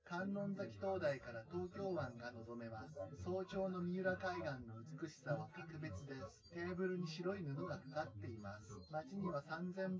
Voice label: test male synthconv

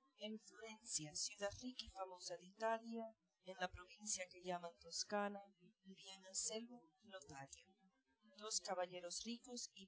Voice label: female4 inst sim

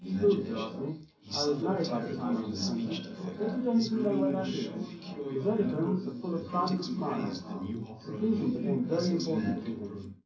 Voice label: male3 380ms 20cm, underdetermined; SiSEC11

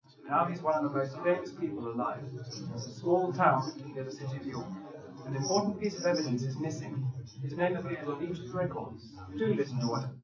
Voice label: male; SiSEC11; underdetermined

